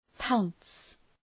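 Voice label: "pounce", English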